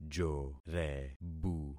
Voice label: "jorebu", concat syllables; Affixing experiment